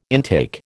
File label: "intake", American